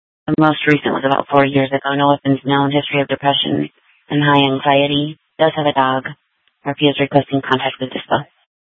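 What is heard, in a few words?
Police Department North Dispatch, scanner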